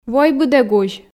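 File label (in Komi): "войбыд эг узь", Komi, female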